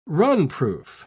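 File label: "runproof", British English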